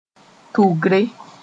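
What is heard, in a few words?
"tʋgre", Mooré; group of thick bushes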